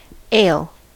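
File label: "ale", English, US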